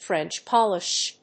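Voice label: "French-polish", English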